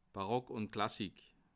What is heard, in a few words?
"barock", alsace